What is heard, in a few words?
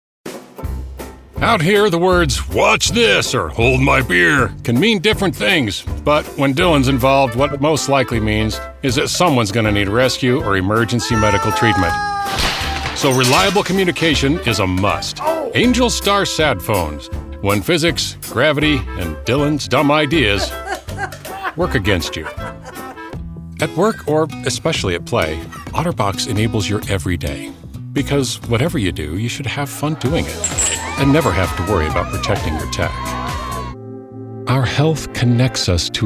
Commercial